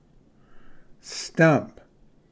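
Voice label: "stump", English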